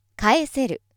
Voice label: female